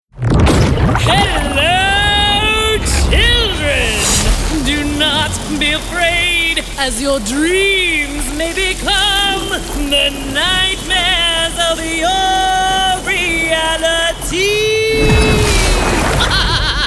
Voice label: women animation; Versatile, unique, textured, warm, and relatable.

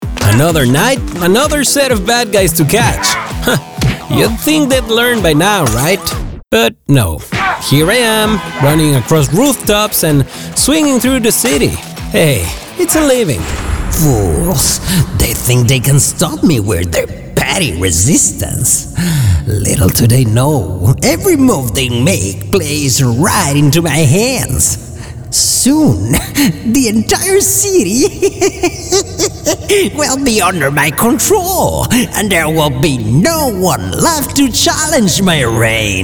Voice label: men video games; (I) Neutral Spanish Speaker. Bilingual with a warm, versatile, and natural tone. From corporate to conversational.

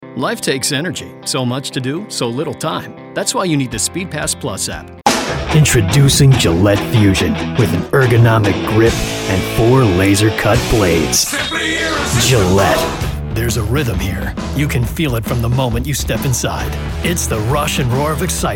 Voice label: men commercial

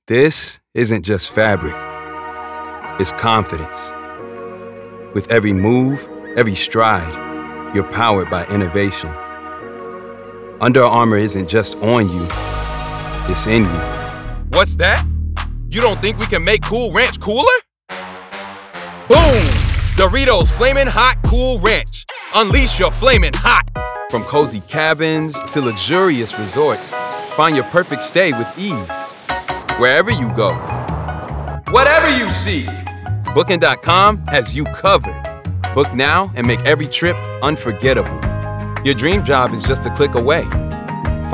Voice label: african american commercial; Warm, upbeat, conversational, humorous, and youthful. Can go from Teen to 30-something, Gen Z to Millennial.

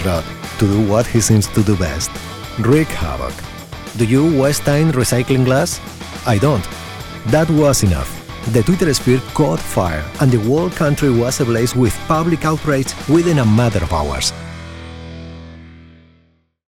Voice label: spanish accented english; European Spanish Speaker.

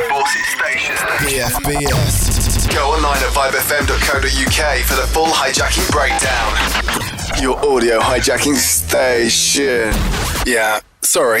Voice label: british radio imaging; Modern and fresh British guy next door who can turn up the excitement or get cool nā edgy on demand.

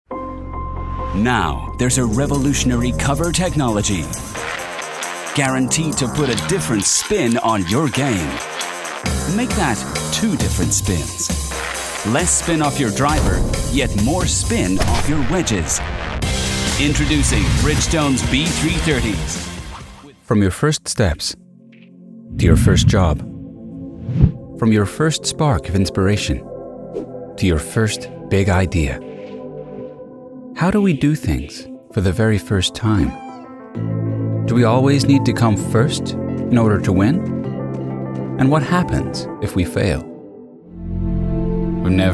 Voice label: men commercial; Trustworthy and conversational Australian storyteller.

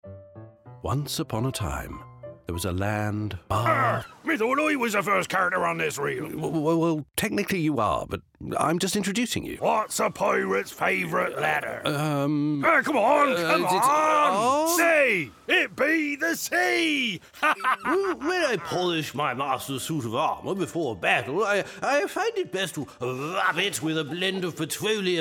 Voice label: british animation

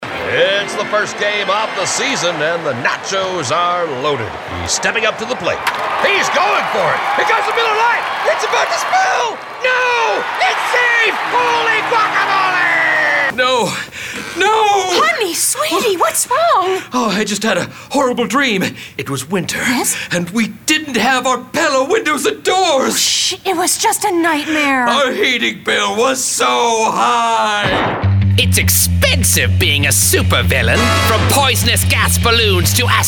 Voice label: men animation; Versatile, relatable, guy next door.

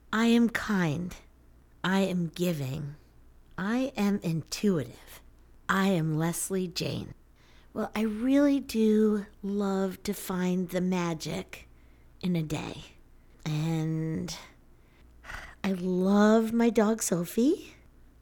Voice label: women mini demo

